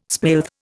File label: "spilth", Indian